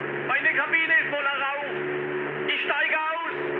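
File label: German on fire mood high, speech; War Thunder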